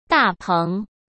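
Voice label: "大棚", Mandarin Chinese; chinese flashcards; hsk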